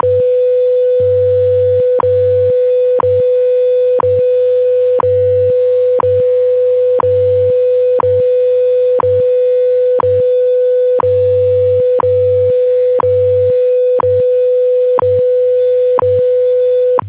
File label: NIST Time; Zagranica